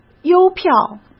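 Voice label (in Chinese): "邮票", Mandarin Chinese; chinese flashcards; hsk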